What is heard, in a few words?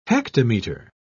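"hectometer", British English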